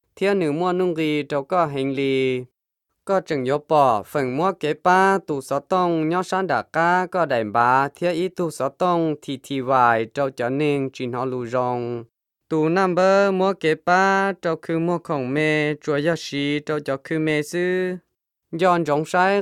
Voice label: Hmong

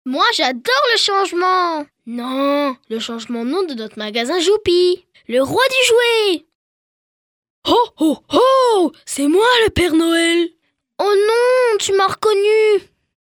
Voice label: FRENCH boy; children

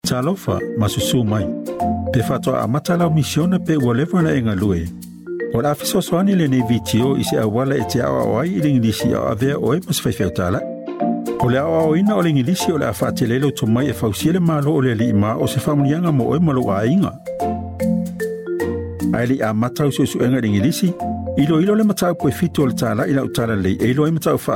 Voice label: SAMOAN

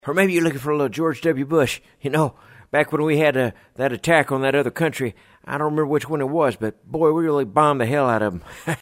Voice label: Character; George W Bush

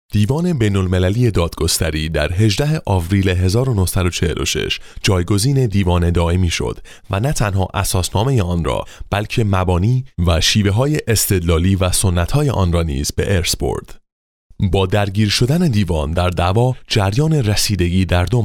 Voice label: Farsi narration

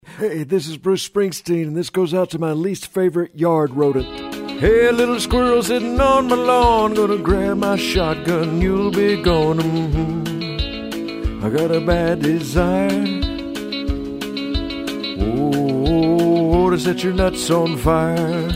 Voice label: Character; Bruce Springstein

